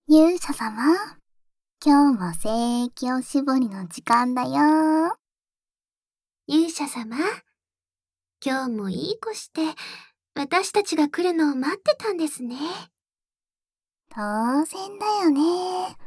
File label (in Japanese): キスと乳首責めとフェラ, ASMR